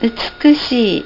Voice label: "utsukushii", japanese